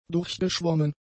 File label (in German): "durchgeschwommen", German; adjectives